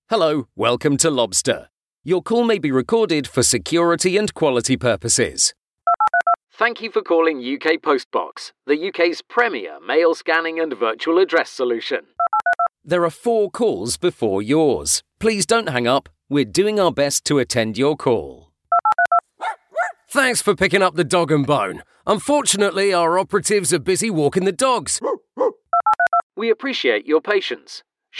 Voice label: telephony ivr

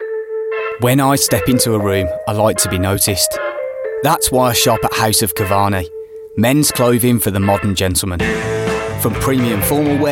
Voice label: brummie natural accent

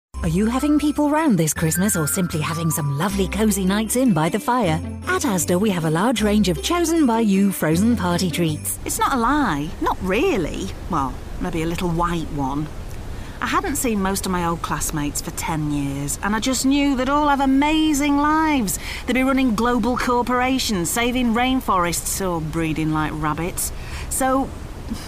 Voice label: commercial

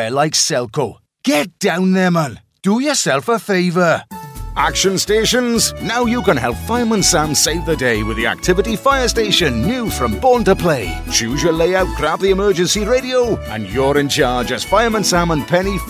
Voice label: welsh accent

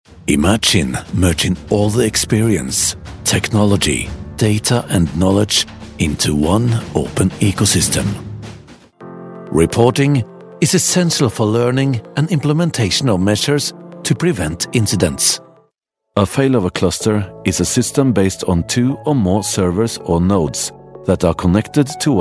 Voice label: norwegian male english with norwegian accent

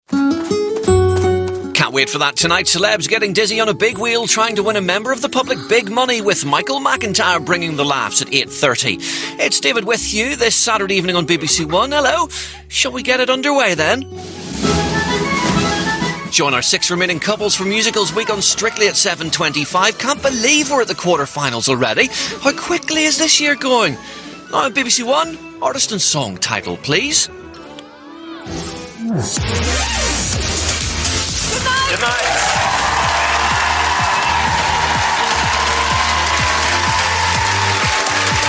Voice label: bbc1 continuity

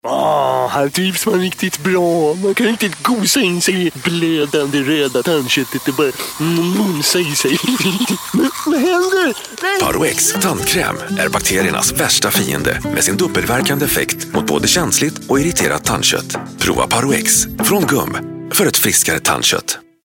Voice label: swedish voice swedish commercial

